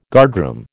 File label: "guardroom", American